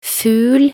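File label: "fugl", Norwegian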